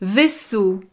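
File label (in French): "vaisseau", French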